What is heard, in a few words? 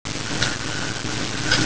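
"sen1lin2", Mandarin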